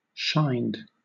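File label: "shined", British English; pronunciations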